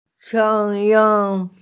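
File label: "shengyin", Mandarin Chinese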